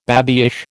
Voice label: "babyish", American